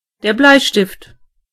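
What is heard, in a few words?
pencil, German voices words; gcompris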